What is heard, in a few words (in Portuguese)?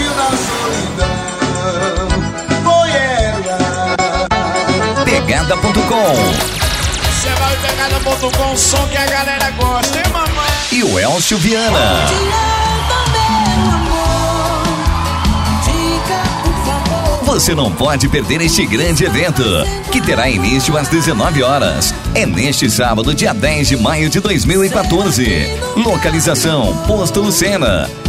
chamada de festa